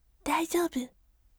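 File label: "daijoubu", gentle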